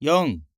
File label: Japanese Number